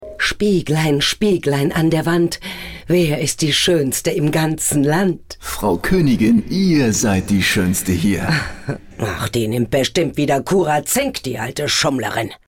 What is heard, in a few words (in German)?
Werbung, Werbesprecherin; Sprachproben; Synchronschauspielerin; Curacel